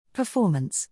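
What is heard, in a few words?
"performance", English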